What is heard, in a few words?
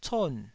"con4", Taiwanese